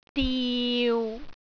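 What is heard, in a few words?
"diu6", Cantonese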